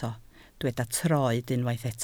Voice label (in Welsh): "troed", Welsh